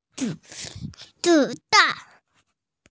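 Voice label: "bullet", Mandarin Chinese, female; zi3dan4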